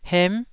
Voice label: "hem9", taiwanese